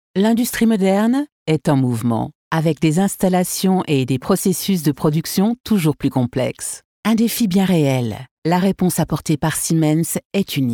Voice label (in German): FRA Sprechprobe